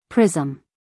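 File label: "prism", American English female; everyone-can-use-english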